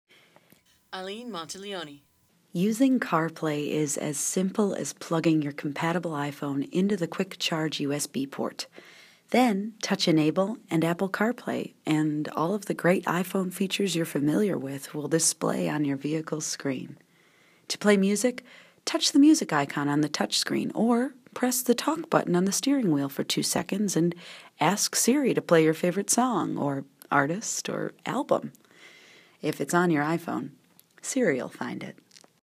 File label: commercial